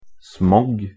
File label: Norwegian "smog" anticoarticulation